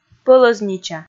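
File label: "пӧлӧзнича", Komi, female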